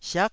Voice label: "siak9", taiwanese Syllables